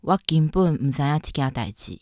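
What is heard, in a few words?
minnan, Chinese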